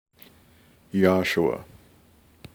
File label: "Yahshua", male voice